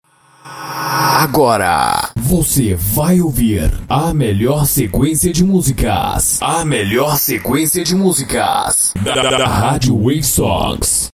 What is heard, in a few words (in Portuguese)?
vinhetas para sua radio